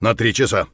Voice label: flank right, voice; Escape from Tarkov